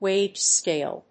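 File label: "wage scale", English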